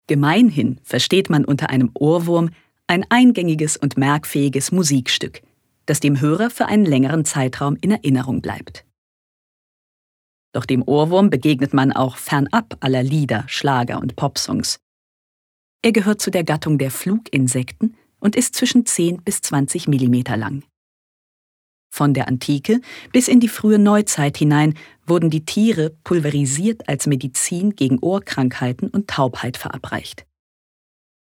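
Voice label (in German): Kommentar, Freundlich; Ohrwurm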